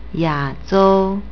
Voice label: "yazhou", Chinese; Asia = Ya3-zhou1